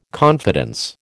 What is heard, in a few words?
"confidence", American